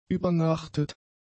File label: "übernachtet", German; adjectives